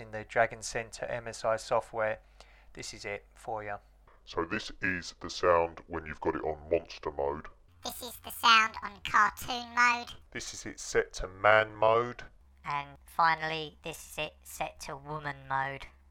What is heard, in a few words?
gh50 microphone test